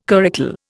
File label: "curricle", Indian